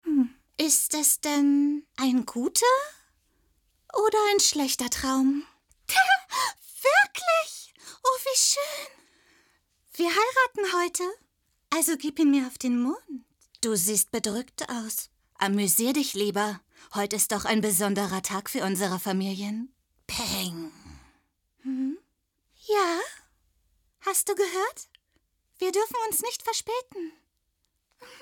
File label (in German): anime zwei charaktere; one piece; casting stimmprobe